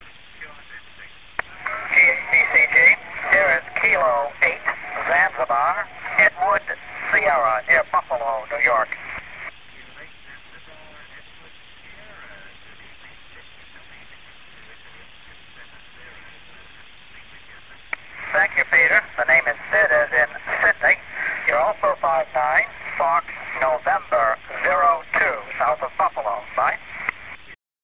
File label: QSO